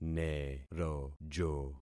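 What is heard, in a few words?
"nerojo", concatenated syllables; Affixing experiment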